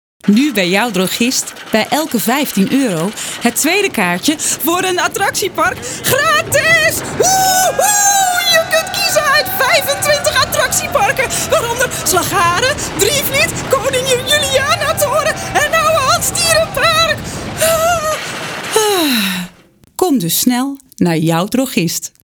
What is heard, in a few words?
Commercial; Drogist